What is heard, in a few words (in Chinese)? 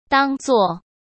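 "当作", Mandarin; chinese-flashcards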